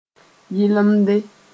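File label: "yɩlemde", Mossi; clean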